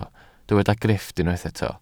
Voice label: "grifft", Welsh

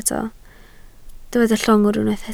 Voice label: "llongwr" Welsh

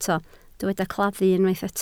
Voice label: "claddu" Welsh

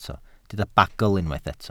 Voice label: "bagl", Welsh

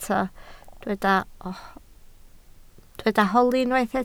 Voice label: "holi", Welsh

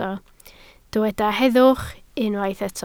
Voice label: "heddwch", Welsh

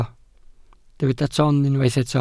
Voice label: "ton", Welsh

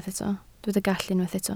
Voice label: "gallu" Welsh

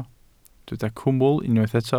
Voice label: "cwmwl" Welsh

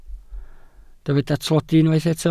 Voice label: "tlodi", Welsh

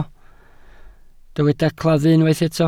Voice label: "claddu", Welsh

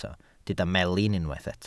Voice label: "melin", Welsh

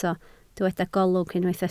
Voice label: "golwg", Welsh

